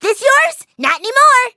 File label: eng menu idle; chili pepper; cookie run